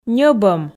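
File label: "ньӧбӧм", Komi, female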